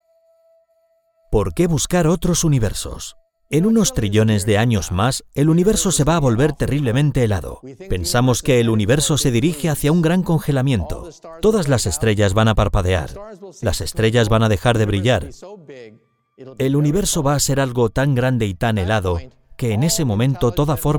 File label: docu